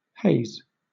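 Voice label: "haze", British English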